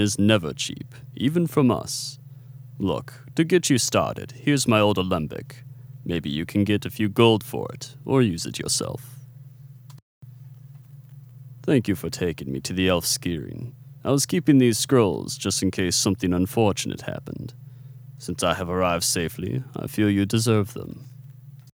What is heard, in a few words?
male high elf audition, voice acting